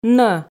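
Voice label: "нӧ", Komi, female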